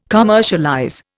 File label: "commercialize", Indian